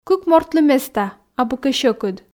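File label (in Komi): кык мортлы места абу кӧ сьӧкыд, Komi, female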